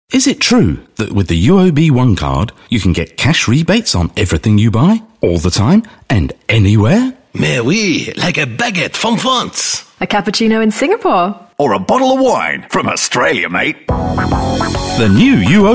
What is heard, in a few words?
UK English Upbeat TVC; UOB One Card